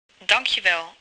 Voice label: Dutch Thanks